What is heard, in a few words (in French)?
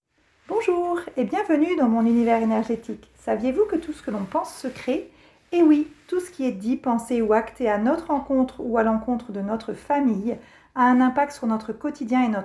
vocal accueil